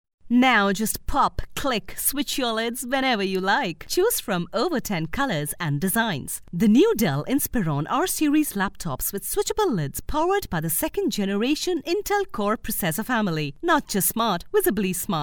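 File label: Hindi, Accented English; voice demos; GoLocalise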